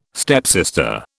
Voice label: "stepsister", British

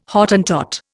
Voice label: "Hottentot", Indian

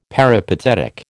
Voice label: "peripatetic", American

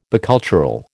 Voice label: "bicultural", American

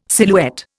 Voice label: "silhouette", Indian

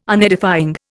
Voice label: "Unedifying", Indian

